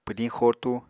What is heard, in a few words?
"pwdiñ xotu", Apatani